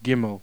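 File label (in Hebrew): "gimel", Hebrew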